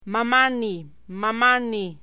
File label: "mamani", Aymara